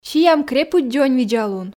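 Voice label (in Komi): "сиам крепыд дзоньвидзалун", Komi, female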